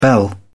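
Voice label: "bell", British English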